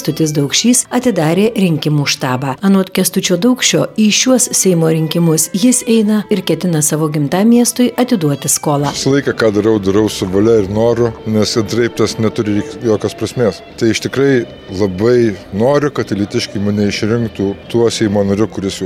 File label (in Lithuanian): Politine reklama; Kestucio Dauksio rinkimu stabas; Mazuronis; Gedvilas